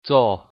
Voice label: good morning, hakka

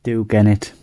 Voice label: goodbye, gwentian

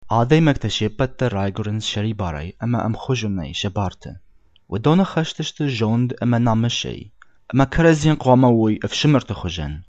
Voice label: Ossetian

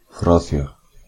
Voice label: understand, gothic

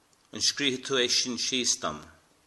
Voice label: "write", Irish

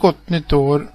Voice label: new year, swedish

